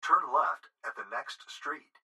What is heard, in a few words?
Gps Voice, Male; Recorded Voice; Laptop Speaker Adult Male